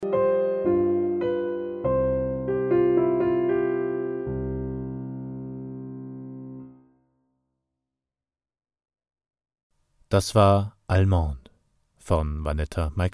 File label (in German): Klassik Stationvoice; moderation